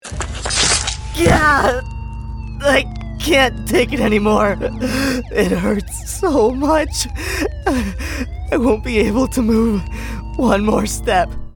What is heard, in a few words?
pain